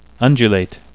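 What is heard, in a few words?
"undulate", English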